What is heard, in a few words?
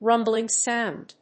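"rumbling sound", English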